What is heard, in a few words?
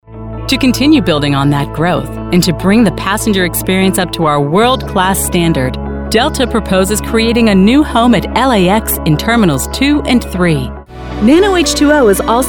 Corporate Narration